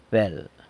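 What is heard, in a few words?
"bel", San Lucas Quiavini Zapotec; snake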